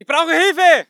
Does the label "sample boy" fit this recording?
No